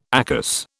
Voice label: "ACAS", British

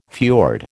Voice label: "fiord", American

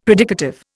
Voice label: "predicative", Indian; Dictionary

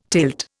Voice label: "tilt", Indian

